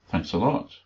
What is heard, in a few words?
"thanks", English